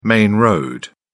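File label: "main road", British English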